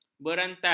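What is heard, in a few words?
"berantai", Malay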